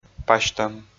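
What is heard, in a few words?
"пасьтӧм", Komi, male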